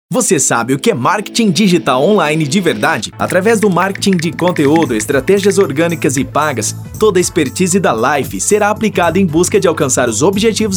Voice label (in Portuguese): Institucional Corporativo